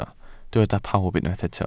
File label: "pawb", Welsh